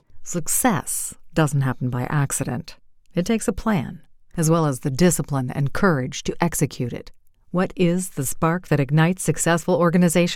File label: Event Authoritative Professional